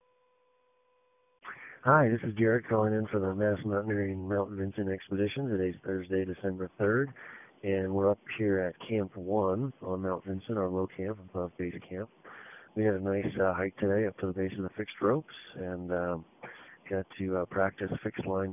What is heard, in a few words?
voicemail